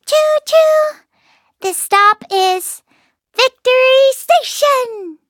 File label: victory, en; Cookie Run